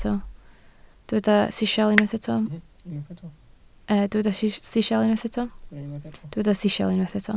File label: "sisial", Welsh